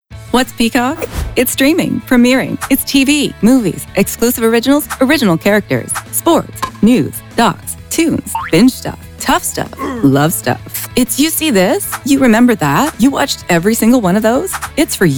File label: vo commercial